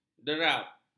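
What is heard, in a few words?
"derap", Malay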